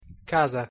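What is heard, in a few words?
"casa", Italian pronunciation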